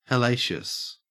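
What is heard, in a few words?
"hellacious", Australian English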